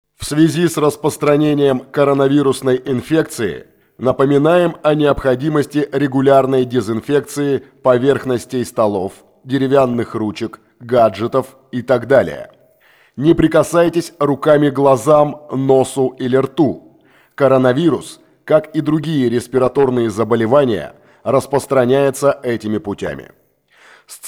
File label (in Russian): Голосовое сообщение